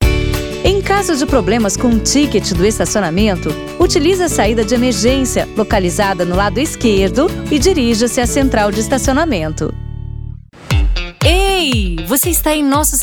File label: RADIO INDOOR